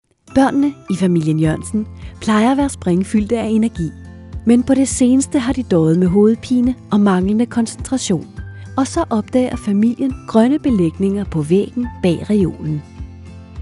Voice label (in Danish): Dansk, speakere